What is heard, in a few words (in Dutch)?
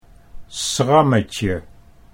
"schrammetje", Dutch